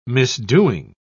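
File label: "misdoing", British English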